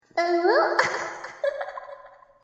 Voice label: uwu hahah

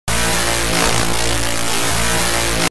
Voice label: screeching; Baldi

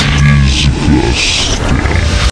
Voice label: disgusting

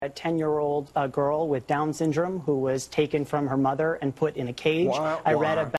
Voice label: Womp Womp news reporter

